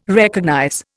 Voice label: "recognize", Indian

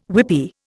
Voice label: "whippy", Indian

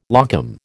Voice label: "locum", American

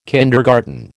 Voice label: "kindergarten", American

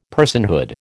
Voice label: "personhood", American

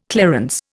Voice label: "clarence", Indian

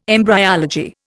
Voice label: "embryology", Indian

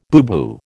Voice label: "booboo", American

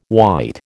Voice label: "white", American

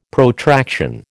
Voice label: "Protraction", American

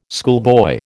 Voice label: "schoolboy", American

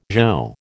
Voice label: "zho", American

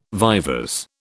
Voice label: "vivers", British

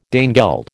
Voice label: "Danegeld", American

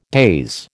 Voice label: "daze", American

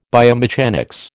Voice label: "biomechanics", American

